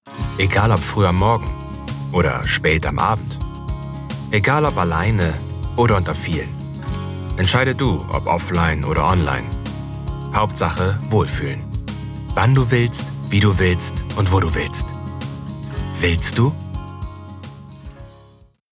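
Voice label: german corporate videos, Male; German Voice Actor; born in Schleswig-Holstein in 1987 and live near Hamburg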